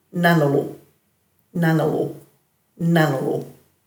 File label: "nanilu", Dhurga